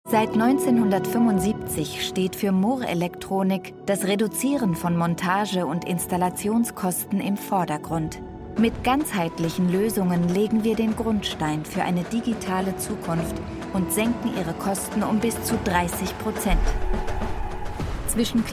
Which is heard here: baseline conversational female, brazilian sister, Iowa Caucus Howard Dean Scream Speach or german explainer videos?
german explainer videos